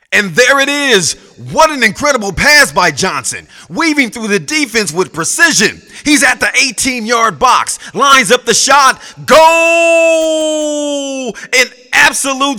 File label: SPORTS ANNOUNCER